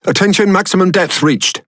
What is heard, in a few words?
Speech Alarms Sub Max Depth, EN GB; World Of Warships